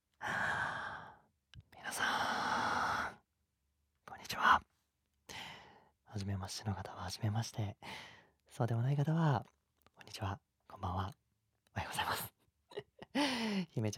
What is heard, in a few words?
FreeTalk, ASMR; トラウマ克服監禁SEX～ちょっぴり(?)愛が重い過保護な年下彼氏くんの独占欲～